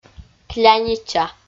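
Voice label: "клянича", Komi, female; kpv; audio dict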